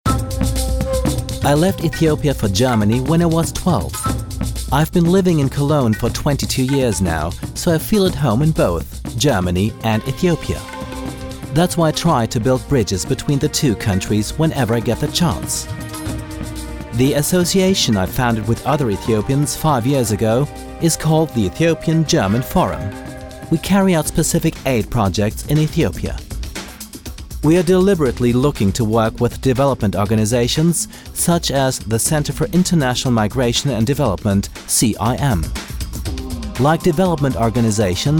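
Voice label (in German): British English, Kommentar, Freundlich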